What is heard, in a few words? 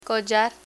"collar", Spanish; spanish vocabulary; learn spanish